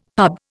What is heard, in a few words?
"pub", Indian; Dictionary